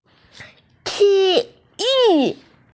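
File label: "tiyu", Mandarin Chinese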